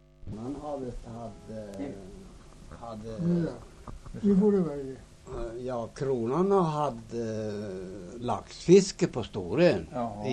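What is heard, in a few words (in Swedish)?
Nora Ångermanland, dialekter; Folkminnen; Svenska sagor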